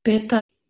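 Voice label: "peth2", Proto-Indo-European; eastern origins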